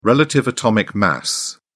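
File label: "relative atomic mass", British English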